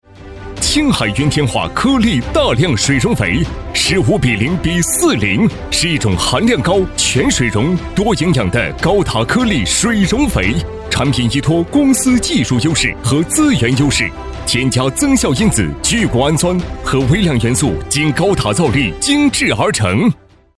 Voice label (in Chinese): 男 农化 颗粒; 青海云天化颗粒